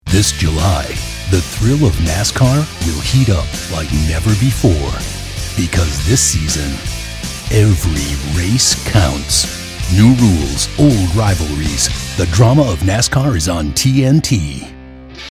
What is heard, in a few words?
Promo; NASCAR TNT